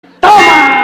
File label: toma, spanish